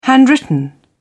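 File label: "handwritten", British English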